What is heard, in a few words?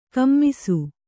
"ಕುಮ್ಮಿಸು", Kannada